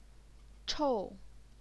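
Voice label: "chou", fourth tone, Chinese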